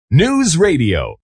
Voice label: News Radio, Male; VoiceBox